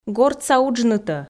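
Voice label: "гортса уджнытӧ", Komi, female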